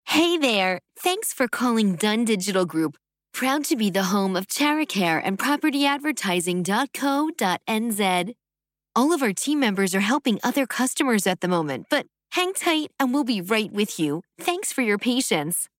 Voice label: Voicemail Greeting